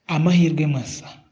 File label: good luck, kinyarwanda